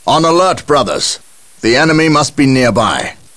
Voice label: chat; gabriel angelos